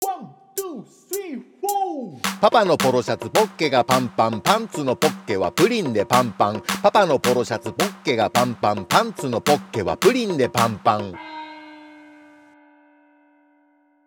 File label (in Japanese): 早口言葉リズム（中級編）